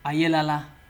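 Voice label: "ayelala", Nigerian Pidgin, male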